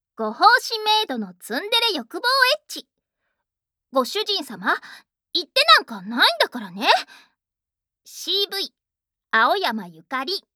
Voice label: タイトルコール, ASMR

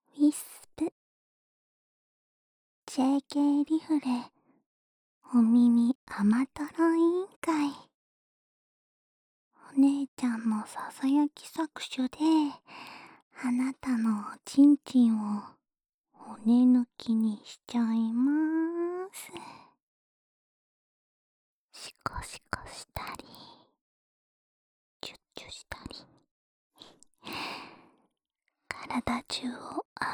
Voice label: タイトルコール

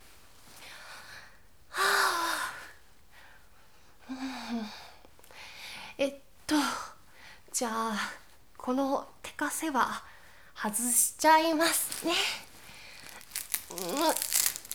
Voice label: ピロートーク ステレオ

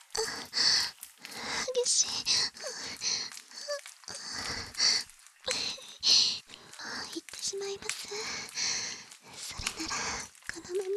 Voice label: 夜伽（バイノーラルマイク収録 効果音あり）

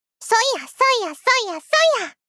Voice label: "そいやっ そいやっ そいやっ そいやっ", 少女×中音域

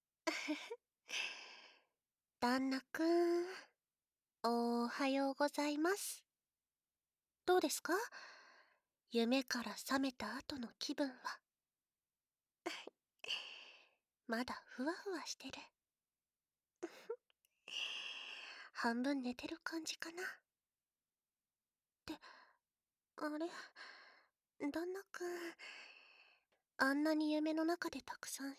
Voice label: ナイショの目隠し耳舐めご奉仕（囁き強化効果音あり）

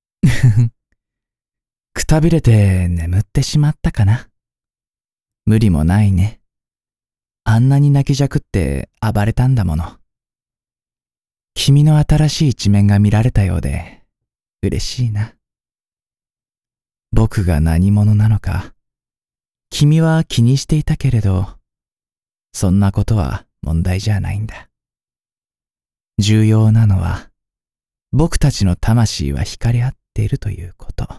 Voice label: 「おやすみ」 ヤンデレ

